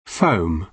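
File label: "foam", British English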